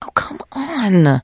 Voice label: trader monolog; 七日杀; 商人杰恩